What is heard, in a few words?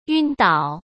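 "晕倒", Mandarin Chinese; chinese-flashcards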